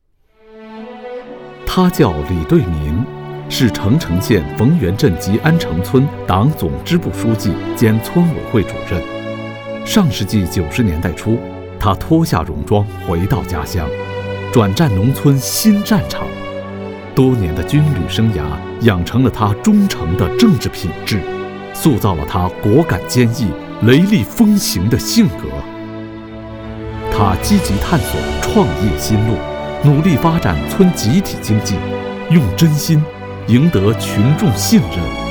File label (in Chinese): 温情讲述片 试音